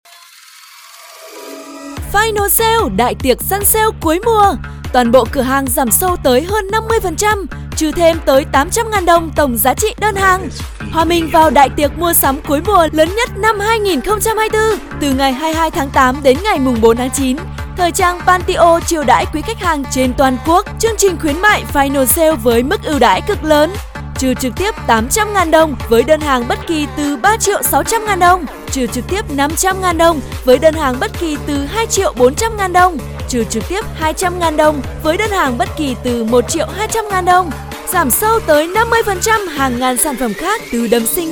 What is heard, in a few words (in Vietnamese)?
thu am phat loa; Pantio